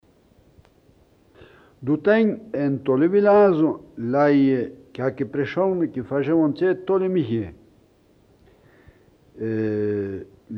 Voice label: Patois d'Ayer Anniviers histoire; patois valaisans; Egards poour letranger

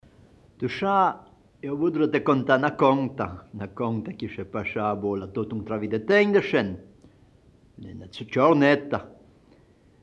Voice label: Patois de Vissoie Anniviers histoire; patois valaisans; Valse et pelerinage

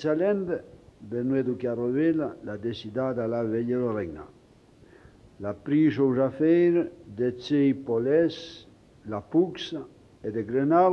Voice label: patois d'Ayer Anniviers histoire; patois valaisans; Un chasseur roublard

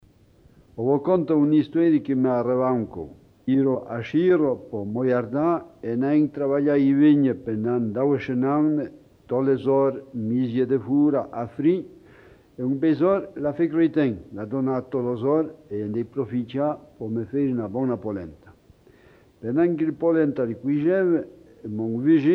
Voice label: patois d'Ayer Anniviers dialogue; patois valaisans; Une histoire de cordons bleus

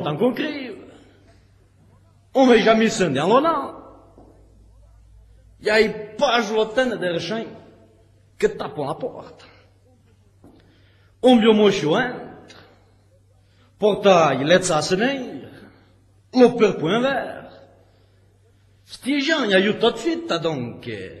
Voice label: l age du diable patois d Heremence; patois valaisans

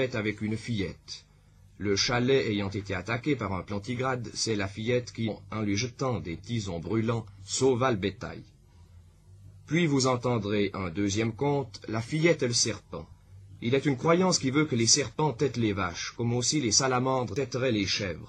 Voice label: patois d Heremence; patois valaisans; Li Tsaudric; L'ours, la petite fille et le serpent

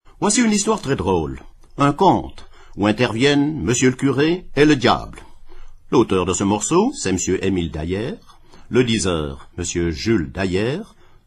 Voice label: patois d'Heremence; patois valaisans; L'age du diable

